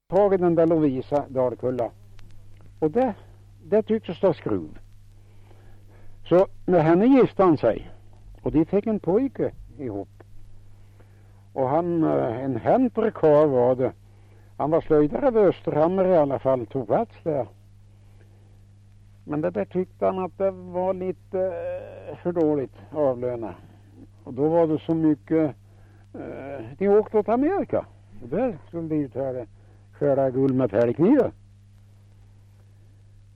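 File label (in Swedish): Fellingsbro Västmanland, svenska sagor dialekter; Folkminnen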